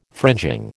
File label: "fringing", American